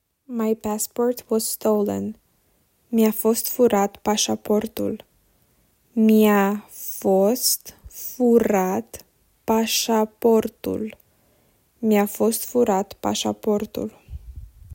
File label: My passport was stolen, romanian; talking romanian phrasebook